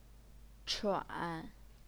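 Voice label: "chuan", third tone, Chinese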